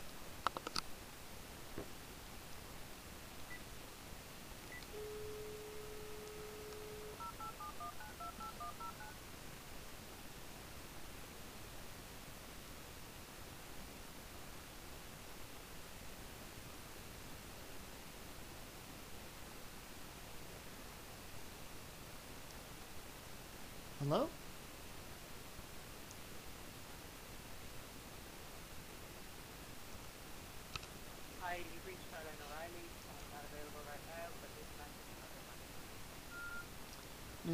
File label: voicemail